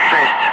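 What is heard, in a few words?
Russian speech, Ka-50 Wingman; Digits; DCS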